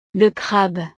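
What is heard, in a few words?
"le crabe", French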